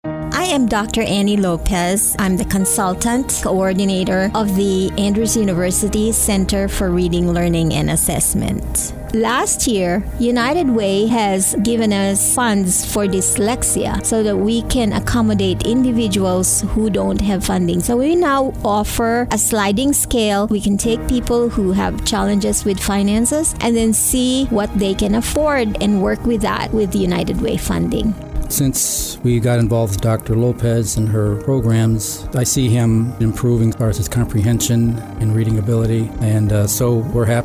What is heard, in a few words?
advert; unitedway